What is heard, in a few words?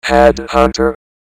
headhunter, Announcer robot